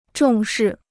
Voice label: "重视", Chinese